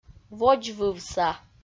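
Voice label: "водзвывса", Komi, female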